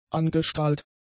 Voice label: "angestrahlt", German; adjectives

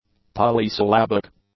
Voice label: "poly-syllabic", english; adjectives

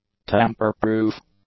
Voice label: "tamper-proof", English; adjectives

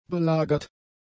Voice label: "belagert", German; adjectives

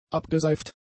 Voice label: "abgeseift", German; adjectives

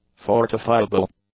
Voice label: "fortifiable", English; adjectives

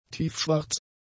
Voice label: "tiefschwarz", German; adjectives

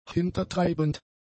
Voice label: "hintertreibend", German; adjectives

